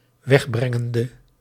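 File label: "wegbrengende", Dutch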